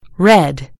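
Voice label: "red", British English; gcompris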